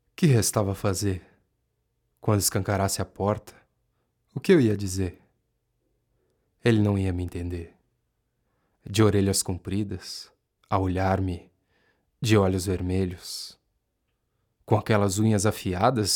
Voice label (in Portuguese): NARRACAO; meu tio lobisomem